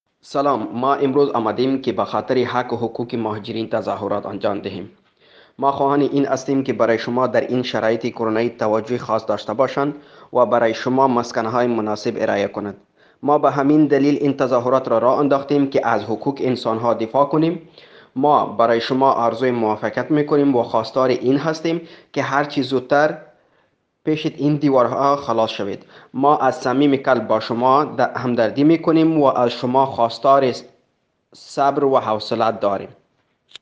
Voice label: Farsi dari